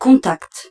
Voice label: French; Swact